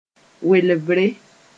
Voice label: "welbre", moore